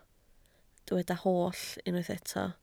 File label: "holl", Welsh